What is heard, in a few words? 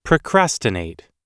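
"procrastinate", US English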